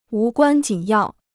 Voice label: "无关紧要", Chinese